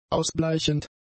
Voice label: "ausbleichend", German; adjectives